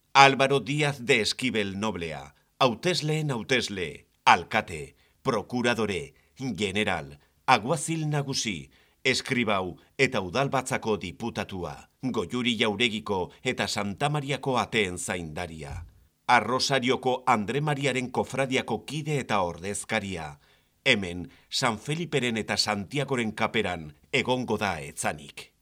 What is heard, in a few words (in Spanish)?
locutor euskera basque voiceover audioguide; locutor vasco